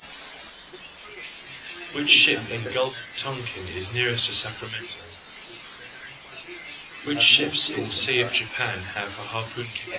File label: room B SNR10 azim15; Ideal VAD